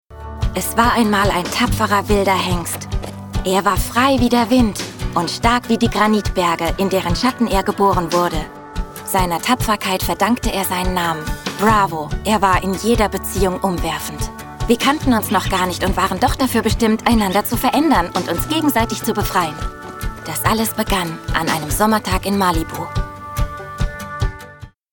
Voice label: Schauspiel Freundlich

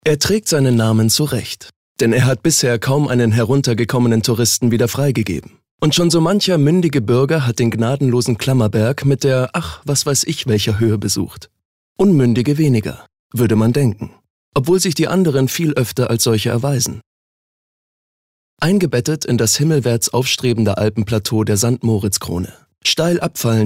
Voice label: Kommentar Neutral

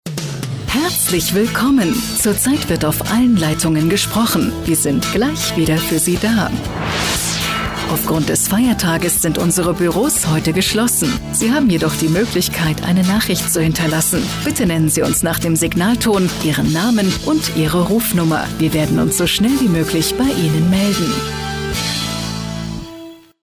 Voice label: Kommentar Neutral

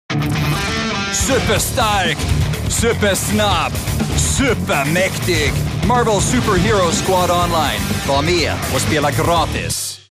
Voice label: SE Werbung Dynamisch

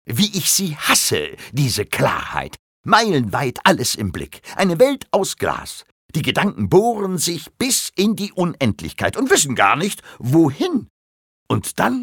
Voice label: Schauspiel Energisch

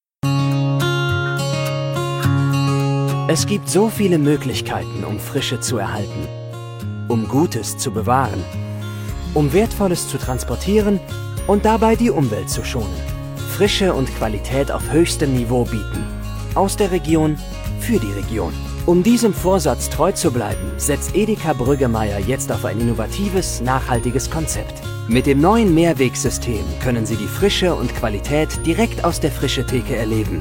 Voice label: Freundlich

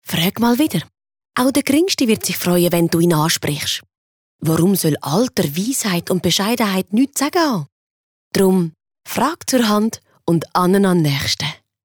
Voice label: Akzent Schwiizerduetsch

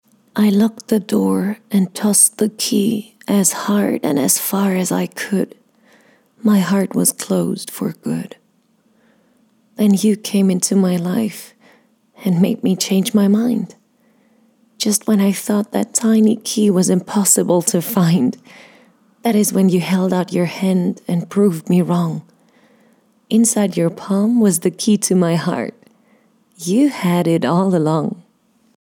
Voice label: Poetisch; EngUS

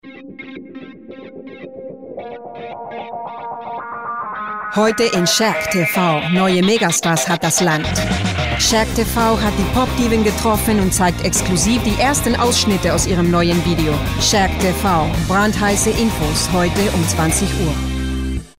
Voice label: Akzent Spanisch

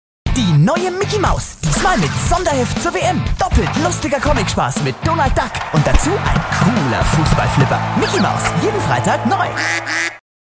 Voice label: Werbung Dynamisch

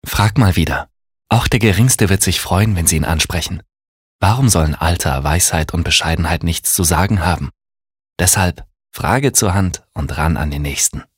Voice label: Werbung Sanft

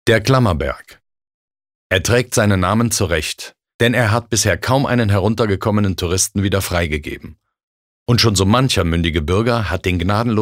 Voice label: Kommentar, Neutral

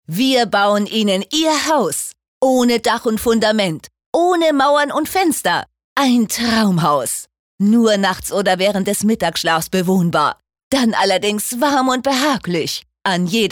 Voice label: Werbung Lebendig

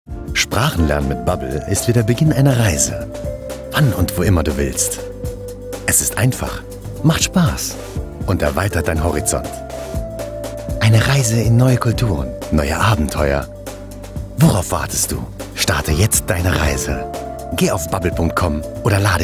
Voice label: Freundlich; Fremdtake

